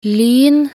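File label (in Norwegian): "lyn", Norwegian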